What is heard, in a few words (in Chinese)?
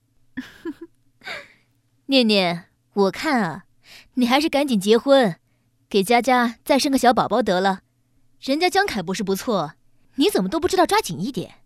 女, 豪爽闺蜜